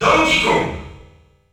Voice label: French Announcer; Donkey Kong; SSB